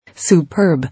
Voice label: "Superb", English

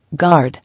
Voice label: "Guard", English

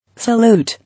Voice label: "Salute", English

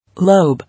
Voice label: "Lobe", English

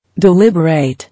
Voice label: "Deliberate", English

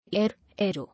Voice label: "Aerial", English

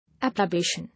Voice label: "Approbation", English

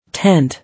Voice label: "Tent", English

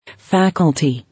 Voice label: "Faculty", English

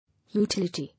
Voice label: "Utility", English

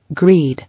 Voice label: "Greed", English

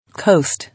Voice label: "Coast", English

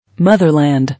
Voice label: "Motherland", English

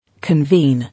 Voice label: "Convene", English

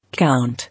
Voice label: "Count", English

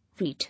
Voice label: "Wheat", English

